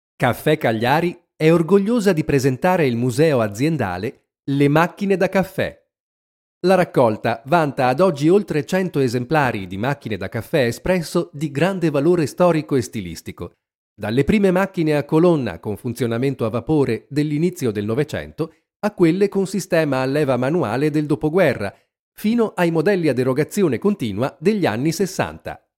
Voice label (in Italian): istituzionale; Voice over; speaker; filmati istituzionali